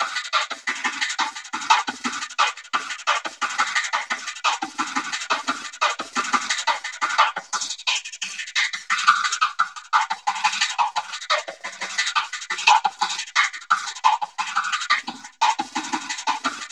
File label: VOCODA LOOPS; Zero G; Malice In Wonderland